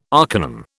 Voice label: "arcanum", British

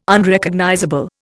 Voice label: "Unrecognizable", Indian

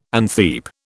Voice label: "antheap", British

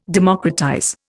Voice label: "democratize", Indian

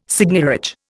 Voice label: "seigniorage", Indian

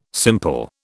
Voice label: "simple", British

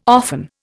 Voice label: "often", Indian

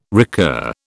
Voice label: "recur", British

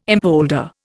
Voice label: "impolder", Indian

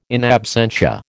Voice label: "in absentia", American

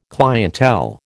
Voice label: "clientele", American

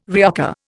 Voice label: "reoccur", Indian

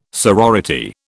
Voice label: "sorority", British

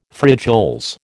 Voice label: "frijoles", American

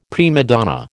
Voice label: "prima donna", American

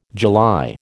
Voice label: "July", American

